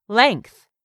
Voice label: "length", English; 3000 words